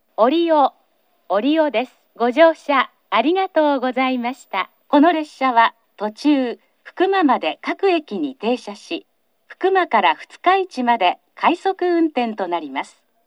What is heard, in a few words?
orio regional rapid, portable recording kyushu; 到着放送 女声; 折尾; 福間〜二日市間快速到着時